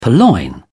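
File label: "purloin", British English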